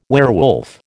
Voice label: "werewolf", American

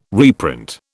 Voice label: "reprint", British

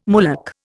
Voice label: "mullock", Indian

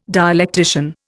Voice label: "dialectician", Indian

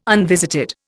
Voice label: "unvisited", Indian

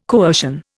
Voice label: "coercion", Indian

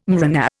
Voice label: "mRNA", Indian

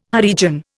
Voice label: "Harijan", Indian

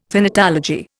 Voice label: "thanatology", Indian

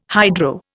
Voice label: "hydro", Indian

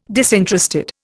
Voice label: "disinterested", Indian

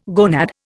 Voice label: "gonad", Indian

